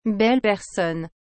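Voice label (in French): "belle personne", French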